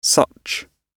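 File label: "such", British English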